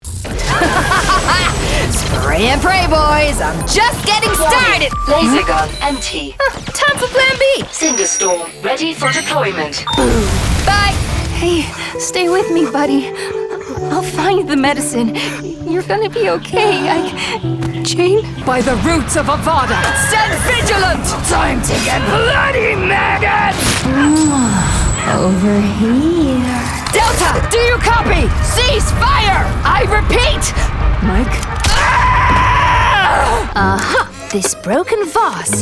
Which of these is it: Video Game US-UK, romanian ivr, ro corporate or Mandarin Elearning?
Video Game US-UK